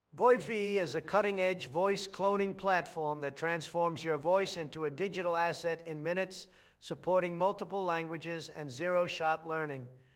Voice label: voice en; 懂王英文版; AI 声音克隆; Voicv